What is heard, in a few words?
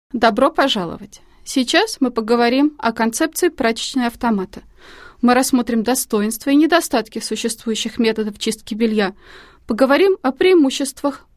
Russian